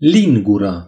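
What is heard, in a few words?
"spoon", Romanian; gcompris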